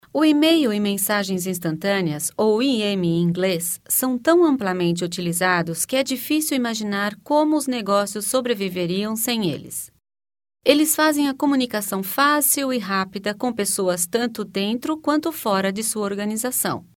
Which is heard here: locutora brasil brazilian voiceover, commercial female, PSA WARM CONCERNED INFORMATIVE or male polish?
locutora brasil brazilian voiceover